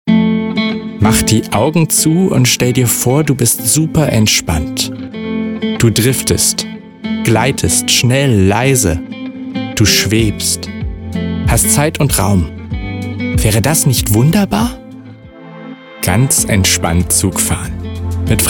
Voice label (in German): Werbung